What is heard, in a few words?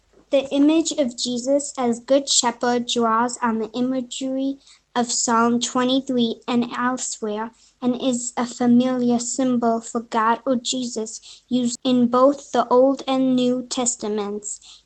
Gospel Reading